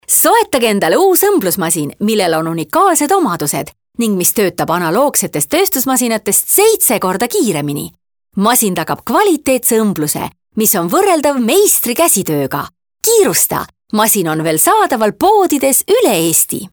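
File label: Estonian female Active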